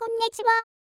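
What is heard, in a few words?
"konnichiwa", Japanese; hatsune miku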